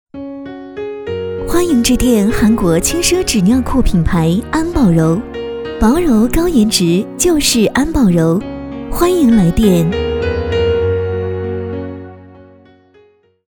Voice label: 女 彩铃 甜美温柔

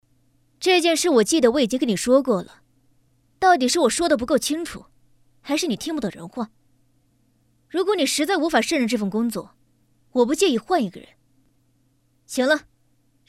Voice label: 女 御姐

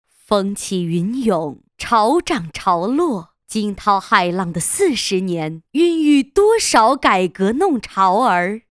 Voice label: 女, 专题, 大气; 弄潮儿

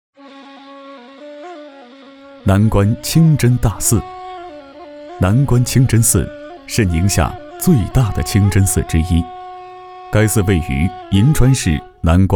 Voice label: 男, 纪录片, 温暖感觉; 南关清真大寺

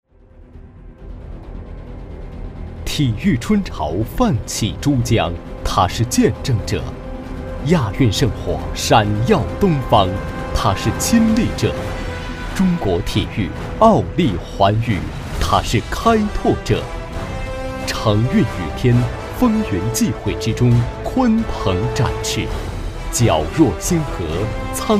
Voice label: 男, 体育中心; 风云际会; 广州天河体育中心

